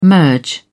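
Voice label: "merge", British English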